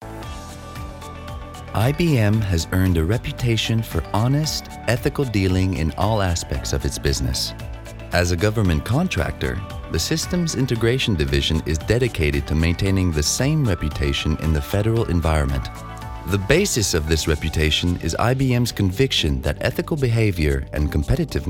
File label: male French Canadian English with North American accent corporate